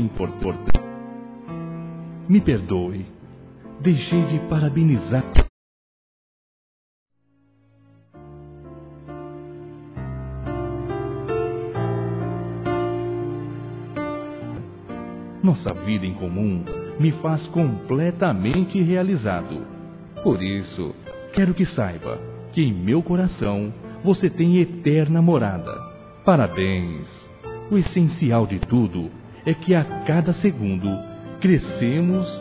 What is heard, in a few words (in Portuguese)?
ESQUECI NOSSO ANIV. CASAMENTO M; Telemensagens 2017